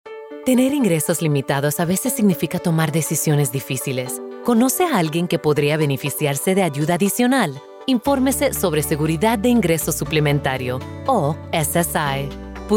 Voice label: SSA Basic Needs Spanish